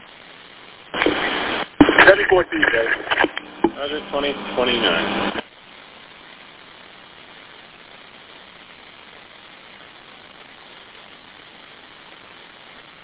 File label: Police Radio